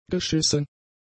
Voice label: "geschissen", German; adjectives

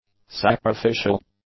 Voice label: "sacrificial", English; adjectives

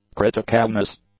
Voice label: "criticalness", English; nouns

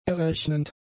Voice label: "errechnend", German; adjectives

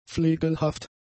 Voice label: "flegelhaft", German; adjectives

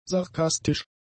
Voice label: "sarkastisch", german; adjectives

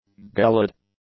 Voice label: "gelid", English; adjectives

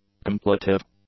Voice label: "completive", English; adjectives

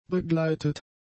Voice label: "begleitet", German; adjectives

